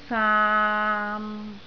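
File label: "saam3" Cantonese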